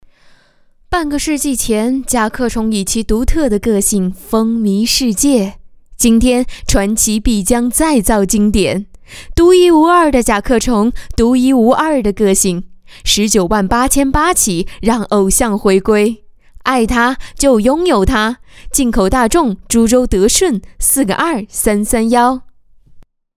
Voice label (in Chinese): 汽车广告 自然